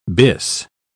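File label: "bis", British English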